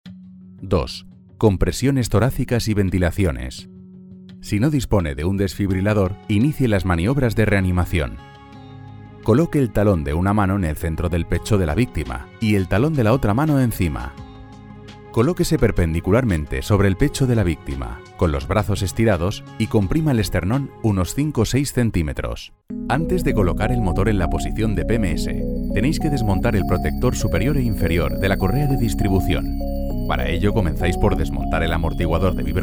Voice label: E-LEARNING

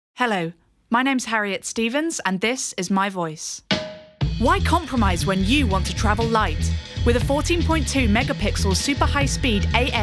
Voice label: COMMERCIAL

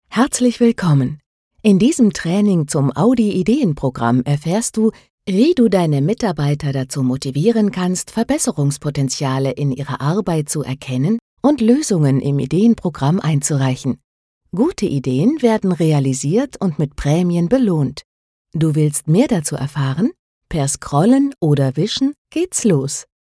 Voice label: E learing

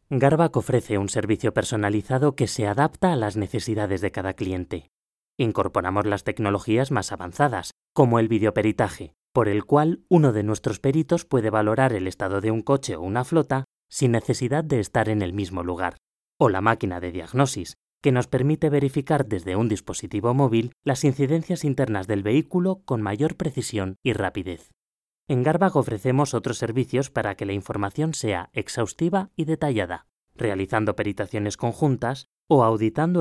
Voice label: corporate

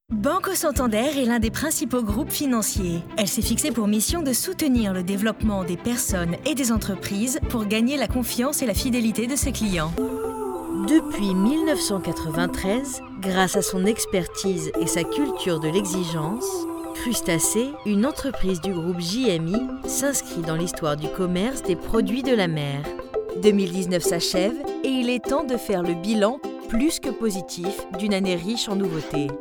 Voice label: Corporate

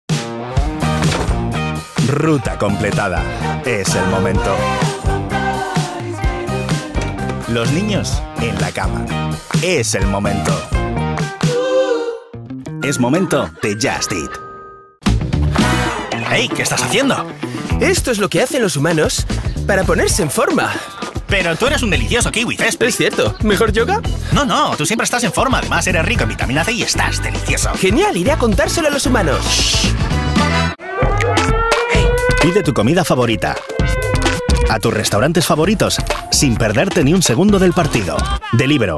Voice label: TV Commercial

